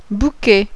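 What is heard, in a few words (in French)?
"BOUQUET", French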